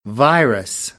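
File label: "virus", American, English; AmericanBritish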